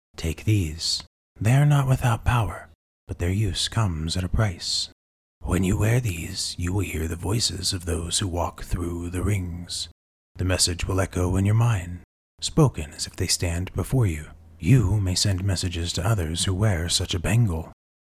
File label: doorkeeper, Mysterous old gate guardian with ancient wisdom and weathered presence, Audiobook NPC